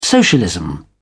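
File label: "socialism", British English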